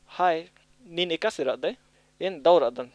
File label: Kurux